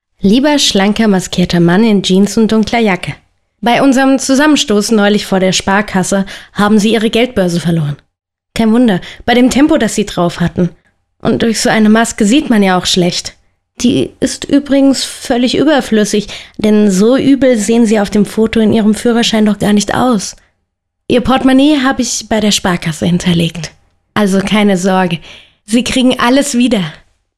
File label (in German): Radiospot Bank